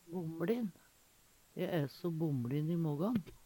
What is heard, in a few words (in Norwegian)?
bomlin, Numedalsmål; Adjektiv